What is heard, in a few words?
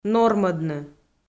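"нормӧдны", Komi-Zyrian, female; audio dict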